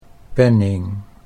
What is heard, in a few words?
"penning", Dutch